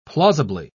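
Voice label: "plausibly", British English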